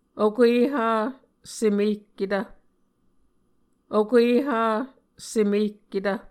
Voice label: "okueha semehketv", Muscogee